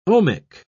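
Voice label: "ohmic", British English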